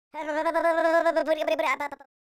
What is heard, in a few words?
scared, speech, Patronising